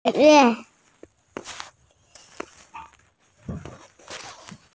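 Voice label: "ci4wei5" Mandarin Chinese, female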